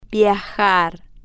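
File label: "viajar", Spanish, slow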